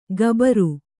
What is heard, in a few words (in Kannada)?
"ಕಬರು", Kannada